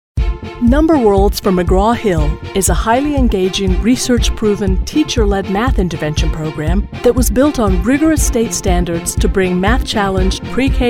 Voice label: CAT Corporate Narration